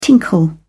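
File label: "tinkle", British English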